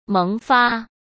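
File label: "萌发", Mandarin Chinese; chinese flashcards; hsk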